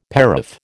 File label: "paraph", American